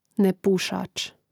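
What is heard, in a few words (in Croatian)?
"nepusac", Hrvatski; mreznik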